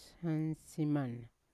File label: "sansiman" tomorrow, Yawa